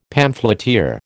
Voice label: "pamphleteer", American

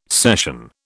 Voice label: "session", British

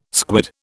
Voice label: "squid", British

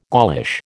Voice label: "Gaulish", American

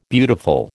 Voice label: "beautiful", American

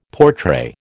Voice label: "portray", American

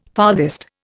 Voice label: "farthest", Indian

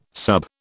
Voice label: "sub", British

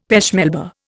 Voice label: "pêche Melba", Indian; Dictionary

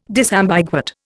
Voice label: "disambiguate", Indian

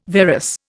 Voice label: "varus", Indian

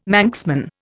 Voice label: "Manxman", Indian

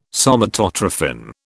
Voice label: "Somatotrophin", British

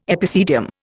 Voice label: "epicedium", Indian

